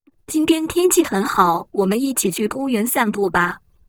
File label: lang mandarin; supertonic